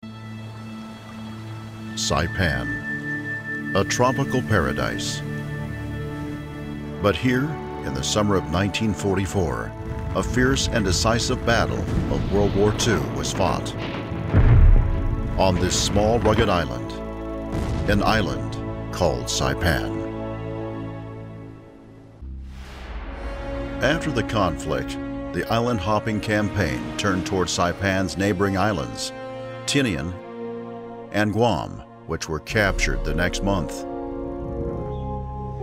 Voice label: DOCUMENTARY; SAIPAN

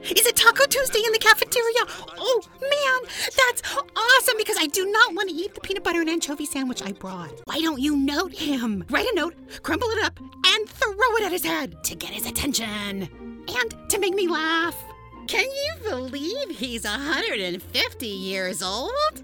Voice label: Cartoon; Taco Tuesday

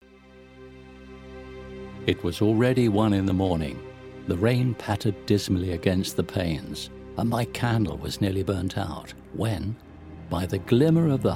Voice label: Audiobook

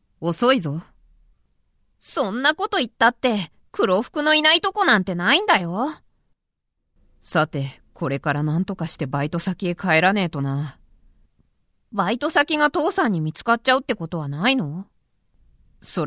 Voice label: Cartoon

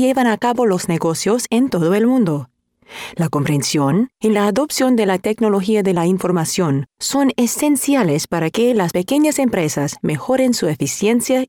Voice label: Technology bilingual

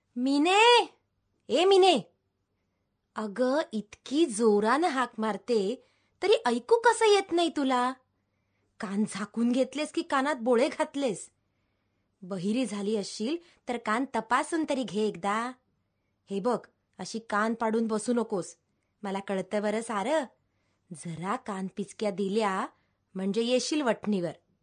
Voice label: Marathi Drama

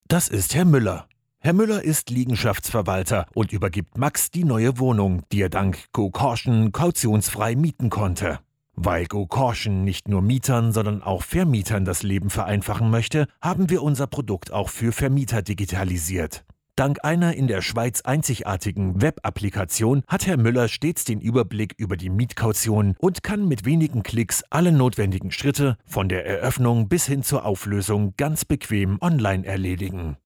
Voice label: Tutorial; GoCaution

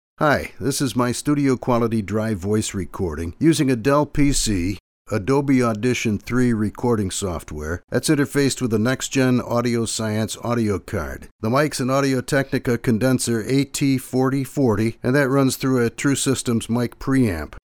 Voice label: STUDIO QUALITY

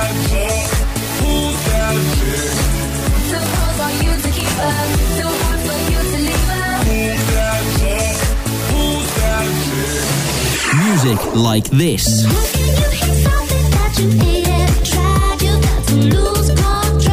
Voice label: Radio Imaging

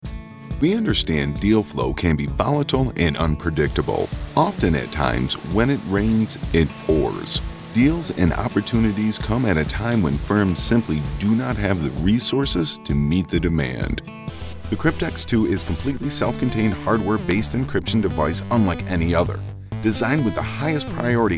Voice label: Corporate Product Narration

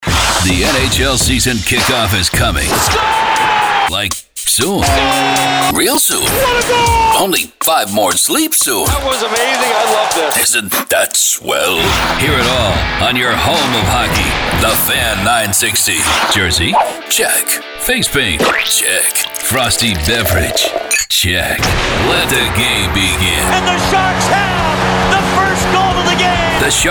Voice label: SPORTS RADIO

